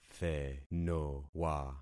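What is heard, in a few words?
"thenowa", concatenated syllables; Affixing experiment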